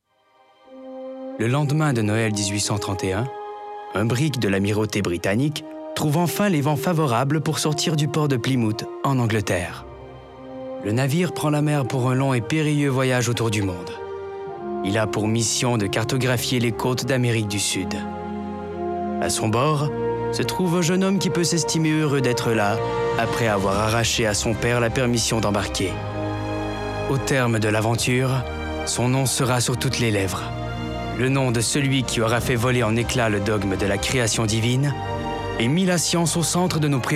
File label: narration